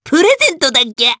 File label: Vox Skill jp; Lakrak; kingsraid